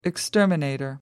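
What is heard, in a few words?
"exterminator", British English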